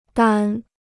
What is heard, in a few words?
"单", Chinese